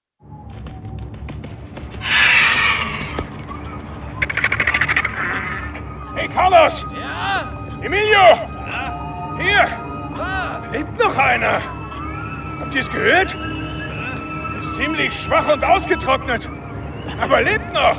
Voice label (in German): Hoerspiel